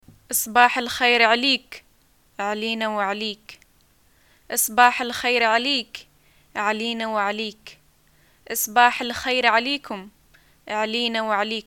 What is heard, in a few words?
greeting morning, arabic